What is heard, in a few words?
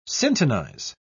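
"syntonize", British English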